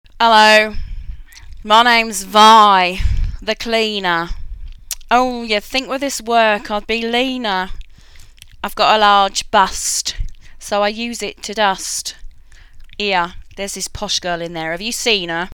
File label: Vocal Cockney Cleaner